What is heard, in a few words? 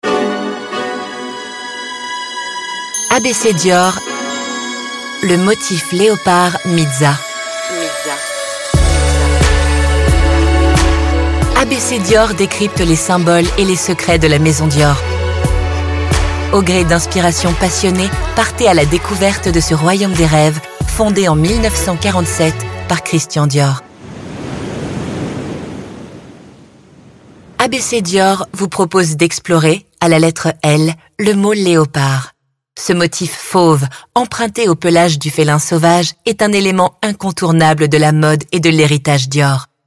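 French Podcast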